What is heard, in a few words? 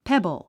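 "pebble", English; pébl ペボォ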